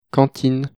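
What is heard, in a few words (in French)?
"cantine", French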